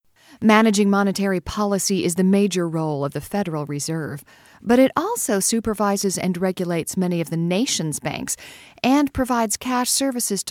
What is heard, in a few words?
English Neutral